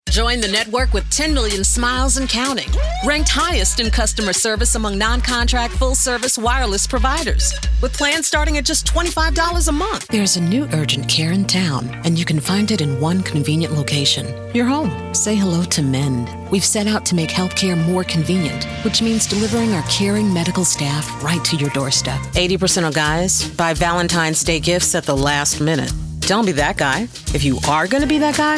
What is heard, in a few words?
commercial; Monk